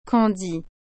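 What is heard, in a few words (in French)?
"candi", French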